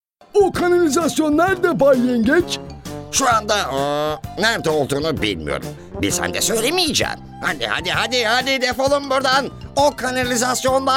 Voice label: turkish m character; voice demos